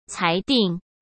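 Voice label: "裁定", Mandarin Chinese; chinese flashcards; hsk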